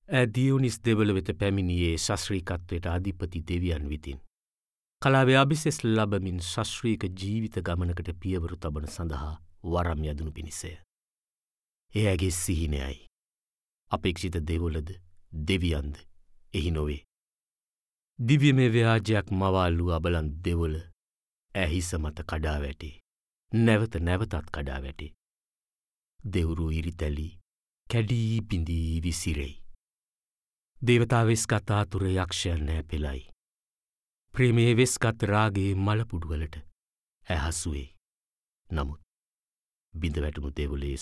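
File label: Sinhala, Narrative; GoLocalise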